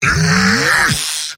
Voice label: heavy cheers; mvm

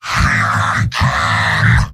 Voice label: battlecry, heavy; tf2; garrysmod; mvm

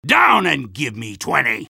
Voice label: soldier jeers; vo; tf2

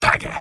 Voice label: sniper negative vocalization; tf2; mvm

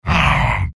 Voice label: negative vocalization, soldier; tf2; garrysmod; mvm

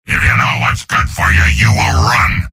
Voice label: soldier taunts; tf2; garrysmod; mvm

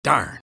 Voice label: negative vocalization; engineer; Garry's Mod; tf2 content

